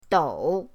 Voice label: "dou3", pinyin